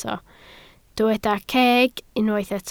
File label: "ceg", Welsh